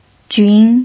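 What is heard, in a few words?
"jun" second tone, pinyin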